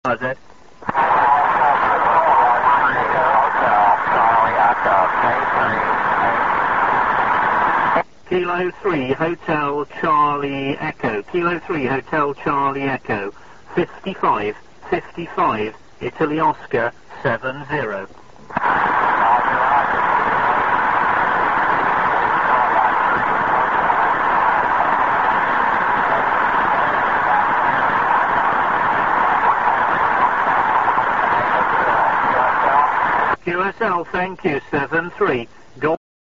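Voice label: amateur radio contact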